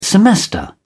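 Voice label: "semester", English, British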